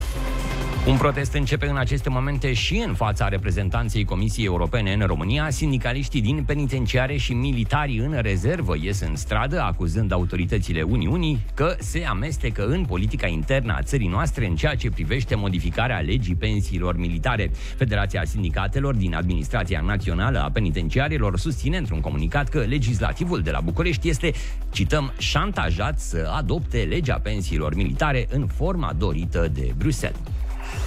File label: radio; radioguerrilla